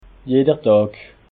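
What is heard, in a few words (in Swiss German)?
"jeder dag", Alsatian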